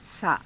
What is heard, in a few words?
"saa", Hindi